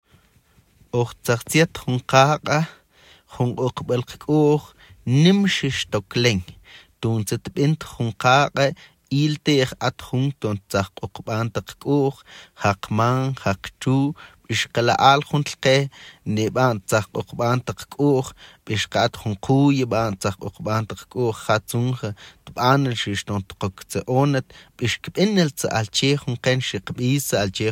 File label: mam male